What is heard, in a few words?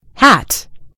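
"hat", American English; gcompris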